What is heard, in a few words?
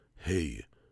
"Heh", Hebrew